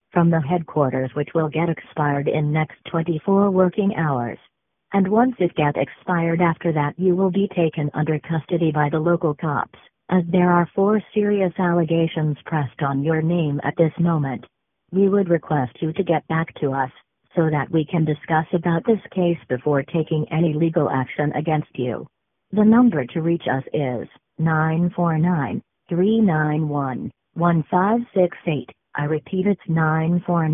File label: voicemail